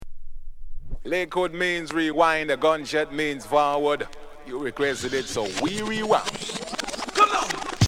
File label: "REWIND", spoken